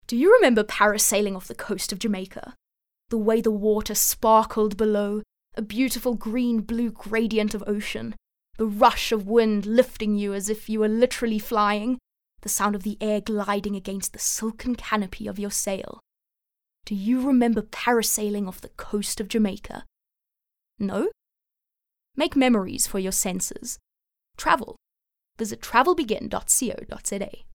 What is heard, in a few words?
English Bright